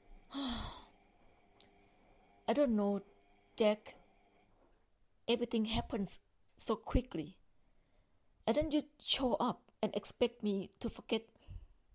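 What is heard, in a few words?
Thai, accented English; GoLocalise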